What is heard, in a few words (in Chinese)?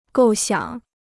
"构想", Chinese